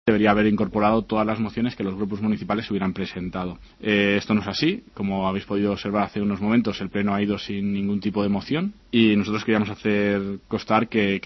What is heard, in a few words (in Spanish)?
noticias